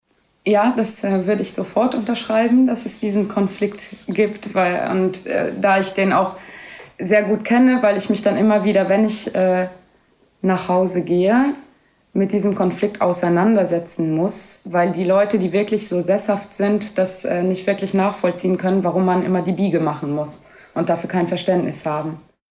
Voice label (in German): luxemburgisch